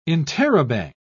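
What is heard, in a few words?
"interrobang", British English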